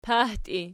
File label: "pahtii", Archi